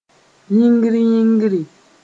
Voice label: "yĩngr-yĩngri", Mooré